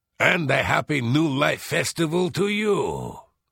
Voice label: Holiday New Life Greeting Male; Unused; Argonian